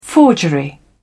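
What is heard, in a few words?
"forgery", British English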